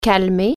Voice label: "cool verb", French